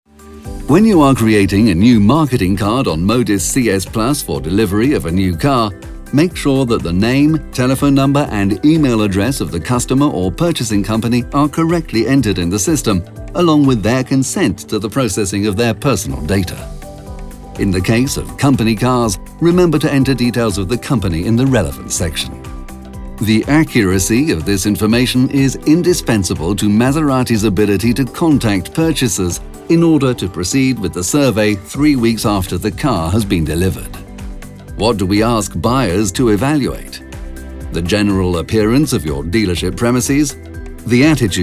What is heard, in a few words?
E-LEARNING; MASERATI